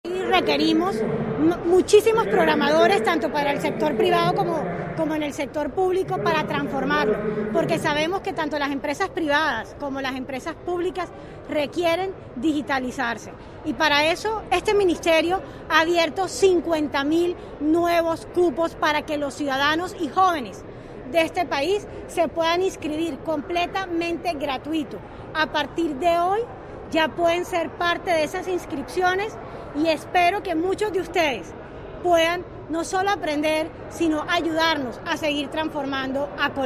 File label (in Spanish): VOZ ANUNCIO; MIN TIC